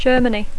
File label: "Germany", English; Alemanya